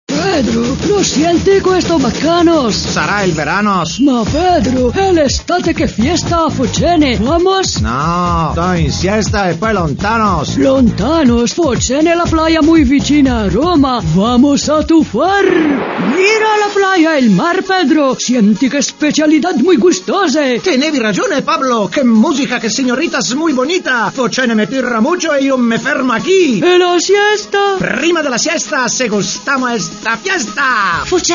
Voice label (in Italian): pubblicita; focene